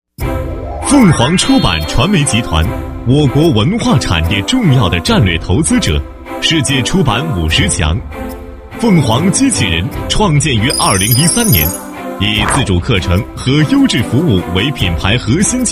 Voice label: 男 机器人宣传片; 凤凰

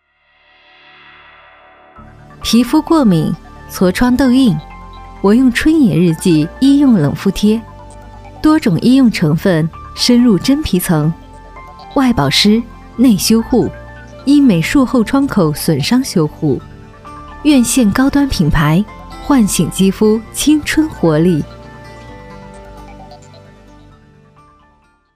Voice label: 女 广告

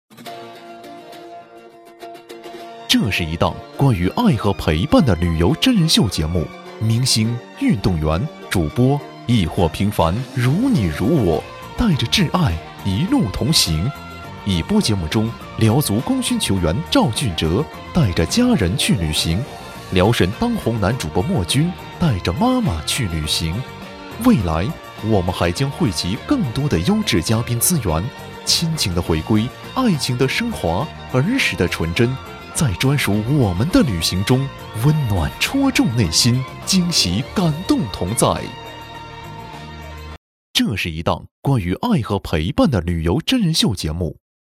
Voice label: 男, 电视片花; 带你去旅行

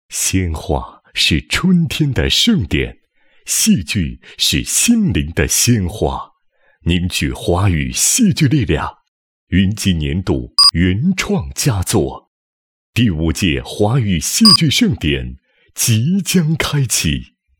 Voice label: 男 颁奖; 华语戏剧盛典

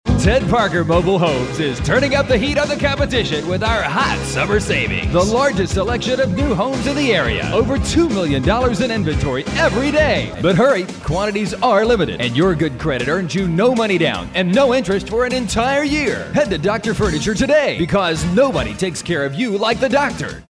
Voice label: 激情力度 促销广告

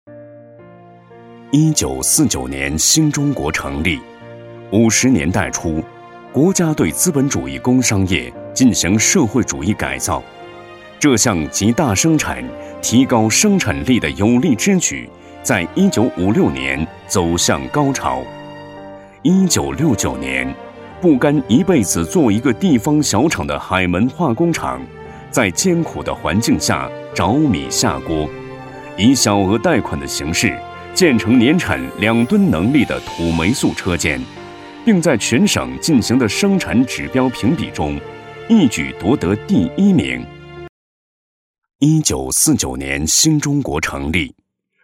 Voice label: 男,纪录片

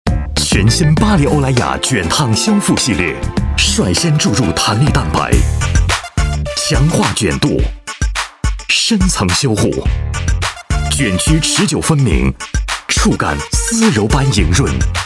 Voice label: 男 洗发水, 国语男声配音

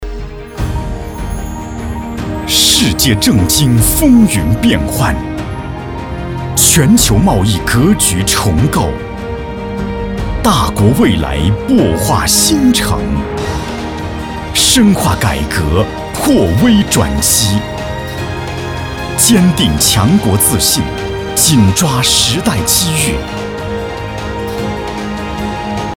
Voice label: 男 大气恢弘 企业专题年会财经保险

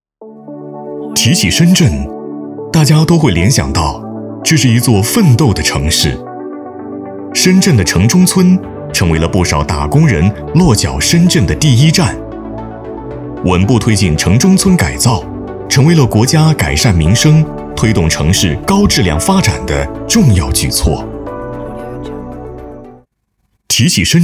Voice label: 男 专题宣传 科技感; 深圳

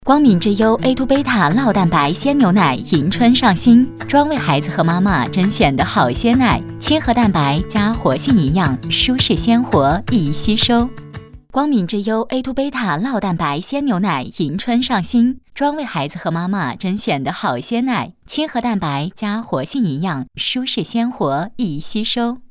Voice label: 女 成熟 广告 鲜牛奶; 光明鲜牛奶

